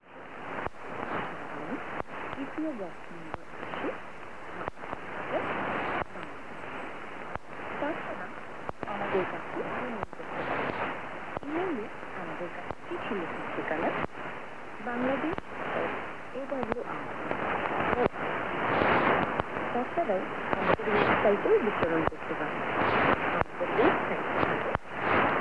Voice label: 15430 kHz bengali; AWR; FT2000; dipolo